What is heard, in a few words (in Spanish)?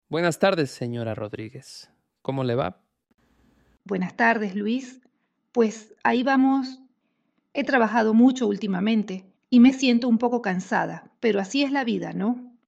Dialogo, espanhol; como dizer que você não está bem em espanhol